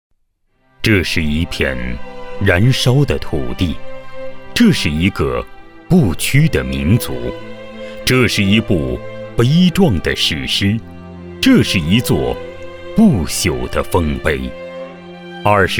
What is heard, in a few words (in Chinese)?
男 历史纪录片; 抗日义勇军